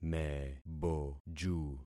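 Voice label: "meboju", concatenated syllables; Affixing experiment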